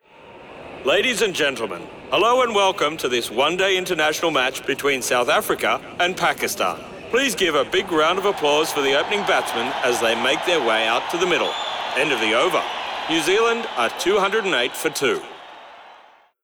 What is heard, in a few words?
ground announcer